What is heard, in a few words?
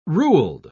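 "ruled", English, British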